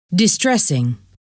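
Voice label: "distressing", English word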